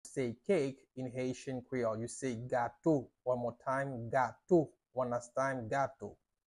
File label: How to say Cake in Haitian Creole Gato pronunciation by a native Haitian teacher